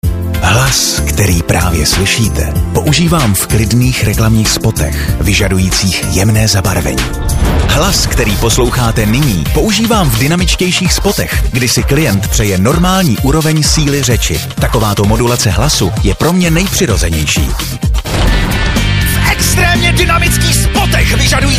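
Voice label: czech male commercial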